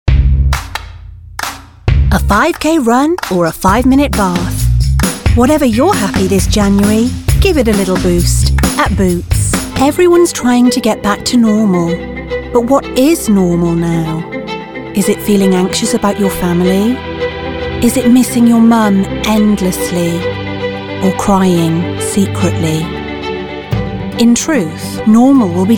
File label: english british commercial; professional English speaking voiceover actor